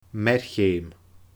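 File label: "mear-cheum", Scottish Gaelic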